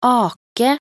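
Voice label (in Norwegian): "ake", Norwegian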